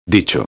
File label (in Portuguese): "dicho", espanhol